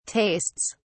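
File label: "tastes", British English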